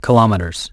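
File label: "kilometers", English; iOS 4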